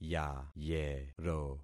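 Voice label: "yayero", concatenated syllables; Affixing experiment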